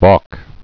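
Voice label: "balk", spoken word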